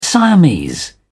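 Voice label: "siamese", British English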